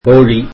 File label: tamil chicken